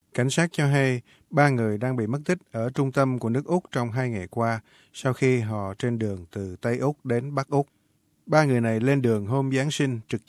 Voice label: vietnamese